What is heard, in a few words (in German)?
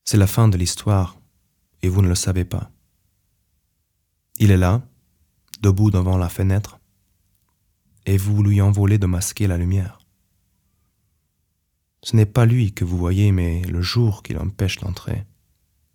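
französisch nicht nativ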